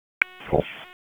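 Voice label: Raleigh Police Northeast District Dispatch, p25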